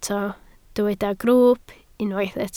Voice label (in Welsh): "grwwp" Welsh